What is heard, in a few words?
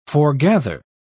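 "forgather", British English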